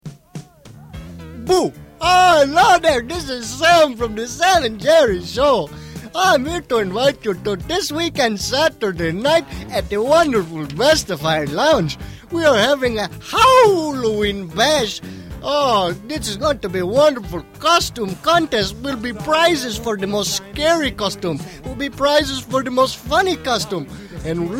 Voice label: commercial; vesta fire lounge halloween bash; 1994 pine canyon